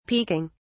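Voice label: "peeking", English, British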